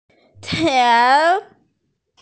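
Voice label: "tian2", Mandarin Chinese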